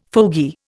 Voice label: "fogey", Indian